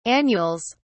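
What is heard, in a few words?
"annuals", British English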